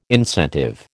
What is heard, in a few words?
"incentive", American